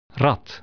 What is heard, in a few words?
"rat", dutch